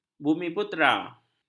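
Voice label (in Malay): "bumiputera", Malay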